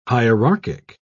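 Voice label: "hierarchic", English, British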